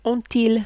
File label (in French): "ont ils", French